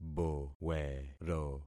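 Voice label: "bowero", concatenated syllables; Affixing experiment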